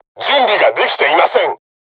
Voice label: voice message art reloading, crew tanks japanese

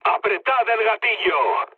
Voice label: attack position, mood low, speech; War Thunder

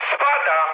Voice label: aircraft destroyed mood low, speech pol; War Thunder

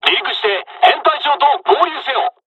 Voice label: takeoff, dialogs japanese; War Thunder

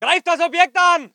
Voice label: voice ger infantry

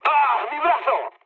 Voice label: damaged pilot mood low; War Thunder

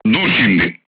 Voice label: voice message short stop, crew tanks turkish

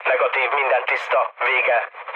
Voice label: hun chat, speech hun; War Thunder

